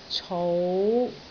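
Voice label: "cou5", Cantonese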